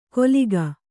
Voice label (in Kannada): "ಕೊಲಿಗ", Kannada; koliga; noun; one who kills; a killer; a murderer.